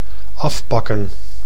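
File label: "afpakken", Dutch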